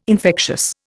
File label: "infectious", Indian